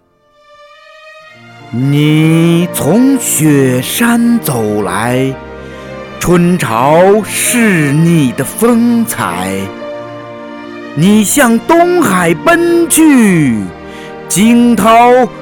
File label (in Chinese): 大气磅礴 长江之歌